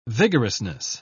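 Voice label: "vigorousness", British English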